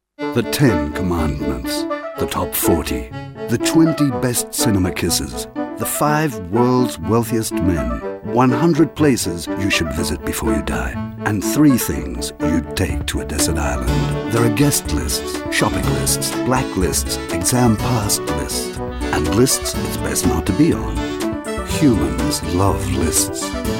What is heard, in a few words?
voice over; m-benz lists; Bienvenido a nuestro banco de voces